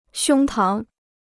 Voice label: "胸膛", Chinese

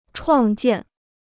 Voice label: "创建", Chinese

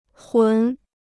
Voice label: "魂", Chinese

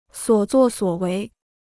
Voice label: "所作所为", Chinese

